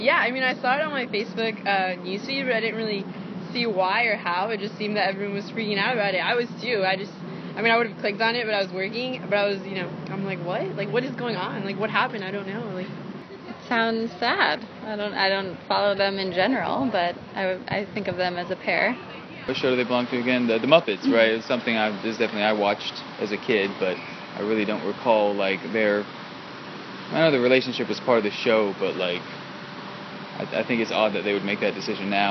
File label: voxpop; summer